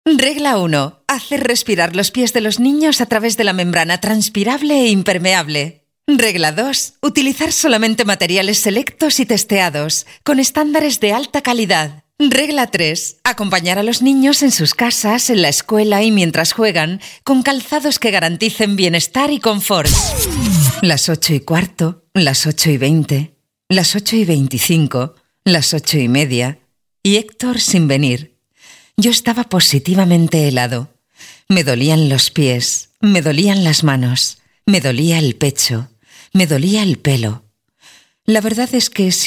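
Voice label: female Castilian Spanish voice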